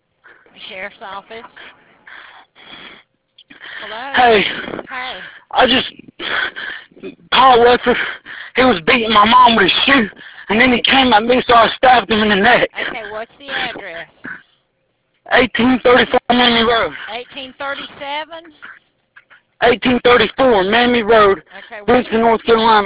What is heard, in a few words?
Console Phone Analog